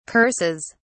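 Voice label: "curses", British English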